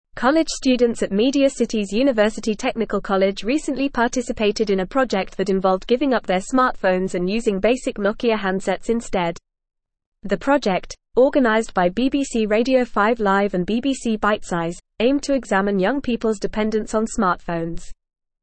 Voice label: English FAST Reading; Students Detox from Smartphones to Reflect on Usage

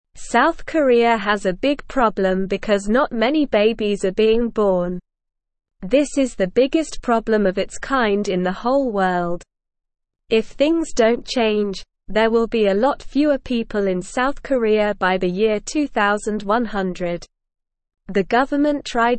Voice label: English Beginner SLOW Reading; English Newsroom; South Koreas Big Problem Not Enough Babies

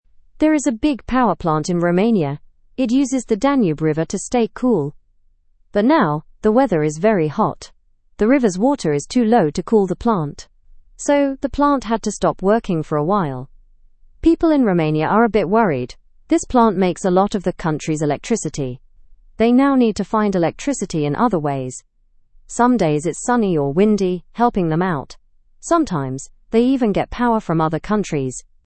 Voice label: english news beginner fast; romania s nuclear plant closed due to heat

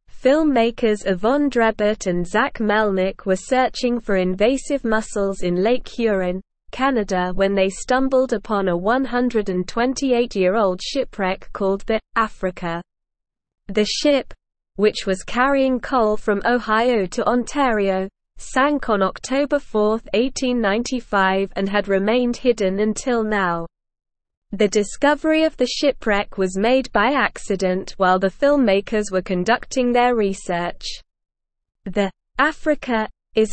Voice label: English Newsroom Advanced SLOW Reading; Shipwreck of Africa Found Filmmakers Uncover Maritime History

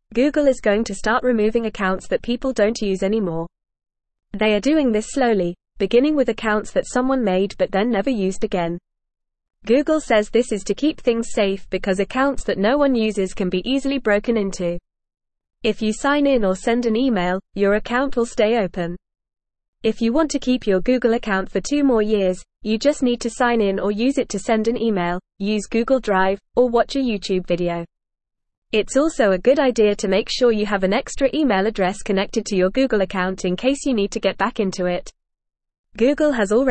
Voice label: English Newsroom Lower Intermediate FAST Reading; Google Removing Unused Accounts to Keep You Safe

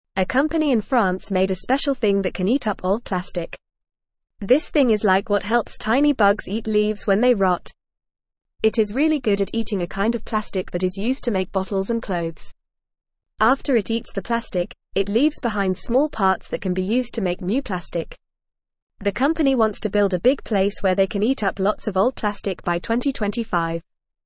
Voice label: English Beginner FAST Reading; Amazing Plastic Eating Thing Helps Make New Plastic; English Newsroom

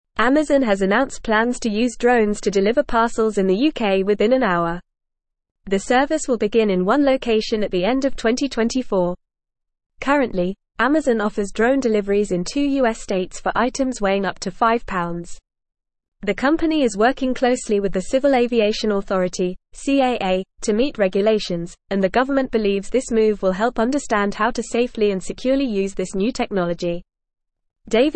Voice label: English Advanced FAST Reading; Amazon to Deliver Parcels in UK with Drones

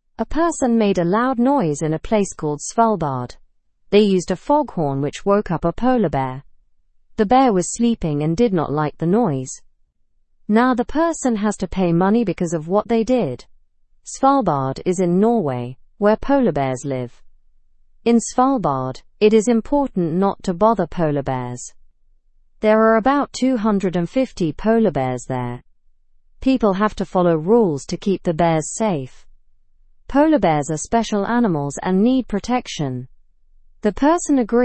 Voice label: learn english through news beginner normal; person fined for waking polar bear

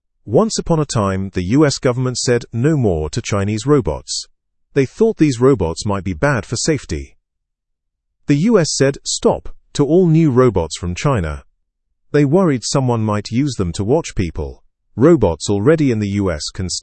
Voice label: english news beginner fast; us blocks new chinese robots

